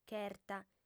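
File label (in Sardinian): "cherta", female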